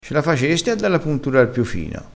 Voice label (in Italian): "collottola", crespinese